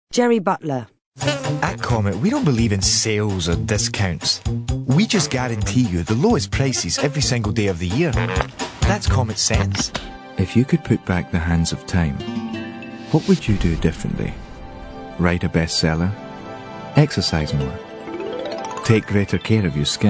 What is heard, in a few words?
radio commercial